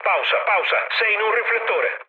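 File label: Italian searchlite mood low, speech; War Thunder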